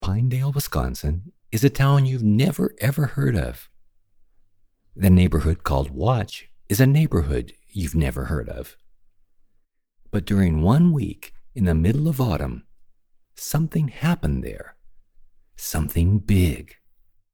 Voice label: Storytelling